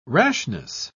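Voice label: "rashness", British English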